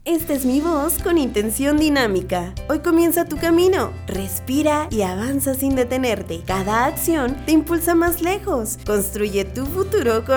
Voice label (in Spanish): Dinamico Musicalizado, locutora